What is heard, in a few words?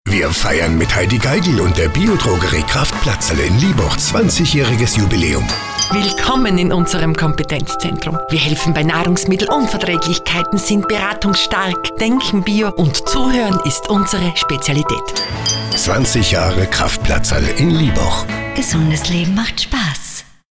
spot; kraftplatzerl